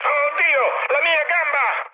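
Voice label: Italian damaged pilot mood high, speech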